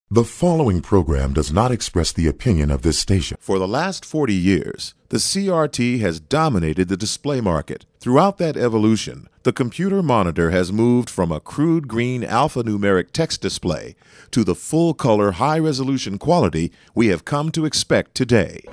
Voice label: Voice over Montage